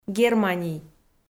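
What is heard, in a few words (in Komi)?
"германий", Komi, female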